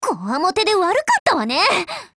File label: SKILL JP